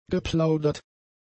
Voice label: "geplaudert", German; adjectives